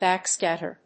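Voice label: "Backscatter", English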